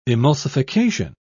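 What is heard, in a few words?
"emulsification", British English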